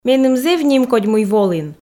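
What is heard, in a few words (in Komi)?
меным зэв нимкодь мый волін, Komi, female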